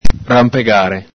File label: "ranpegare", Venetian